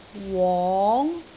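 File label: "wong2", Cantonese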